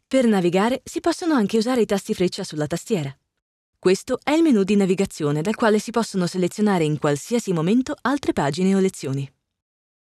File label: E-learning